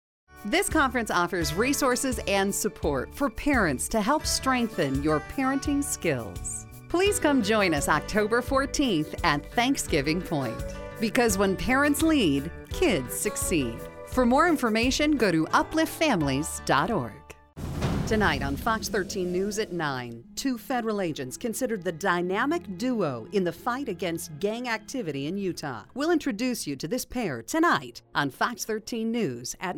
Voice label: Promo